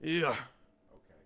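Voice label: Settler Male Fort Vox; Civ4 warlords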